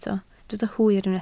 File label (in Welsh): "hwyr" Welsh